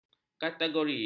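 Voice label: "kategori", Malay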